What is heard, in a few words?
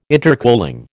"intercooling", American